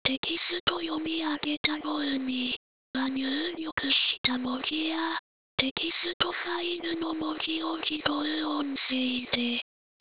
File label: lady